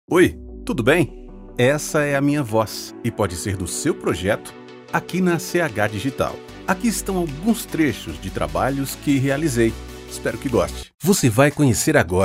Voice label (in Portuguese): Voz Masculina